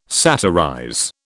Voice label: "satirize", British